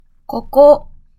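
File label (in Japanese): "ここ", Japanese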